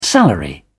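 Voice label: "salary", British English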